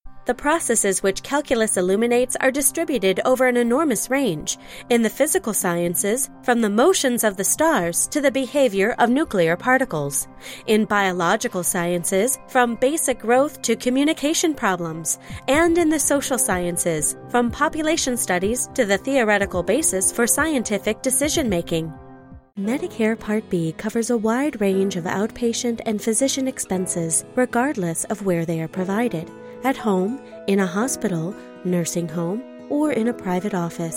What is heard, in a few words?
ELearning